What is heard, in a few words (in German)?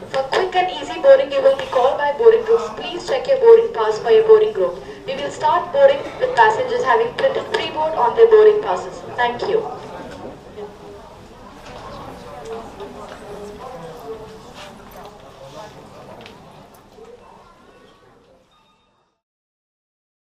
Flughafen Ansagen, nach Lanzarote; Air Berlin 2310